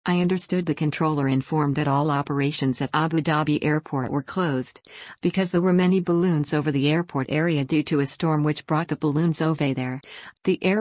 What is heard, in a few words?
Report Speech Situation Bird Strike